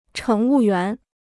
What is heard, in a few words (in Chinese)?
"乘务员", Chinese